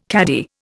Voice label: "caddie", Indian

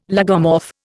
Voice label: "lagomorph", Indian

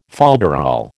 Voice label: "folderol", American

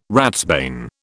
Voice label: "ratsbane", British

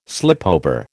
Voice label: "slipover", American

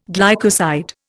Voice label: "glycoside", Indian

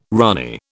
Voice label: "ranee", British

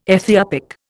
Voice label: "Ethiopic", Indian

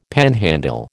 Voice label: "panhandle", American

